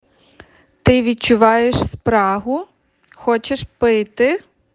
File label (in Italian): Ucraino